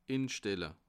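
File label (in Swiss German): "instelle", Bas Rhin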